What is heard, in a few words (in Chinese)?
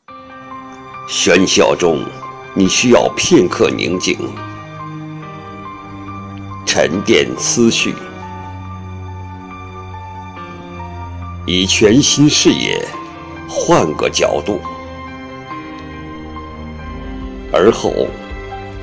旁白; 奥迪